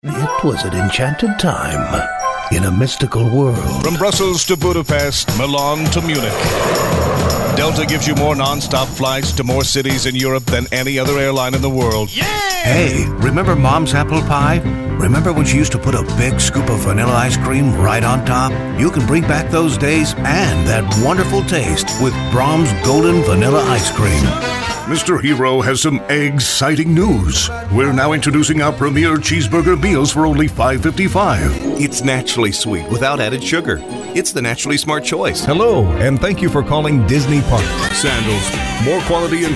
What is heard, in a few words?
Commercial, real people male